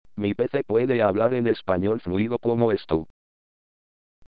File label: spanish, synthesizer